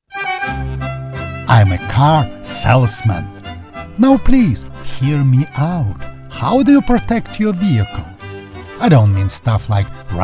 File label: VOICE OVER ENGLISH